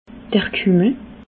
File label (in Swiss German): "kummel", alsace